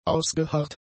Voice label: "ausgeharrt", German; adjectives